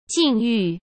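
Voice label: "境遇", Mandarin Chinese; chinese-flashcards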